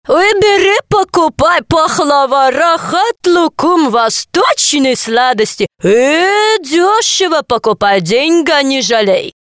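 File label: women (various) voices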